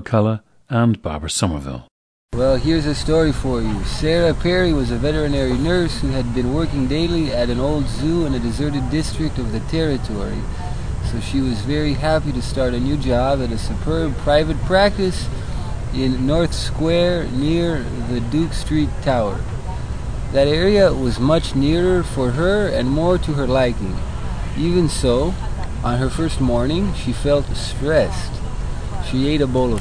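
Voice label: italy, International Dialects of English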